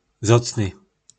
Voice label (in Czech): "Vzocny", nářečí Po našimu; Vzácný